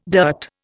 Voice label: "dirt", Indian

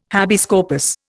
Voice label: "habeas corpus", Indian

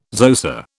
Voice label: "Xhosa", British

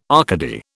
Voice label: "Arcady", British

